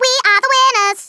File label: "victory", Scouser